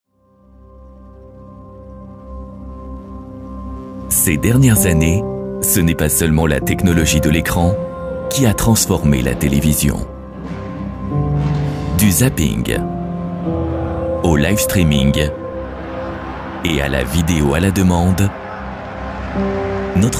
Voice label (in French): corporate, french voice over; Philips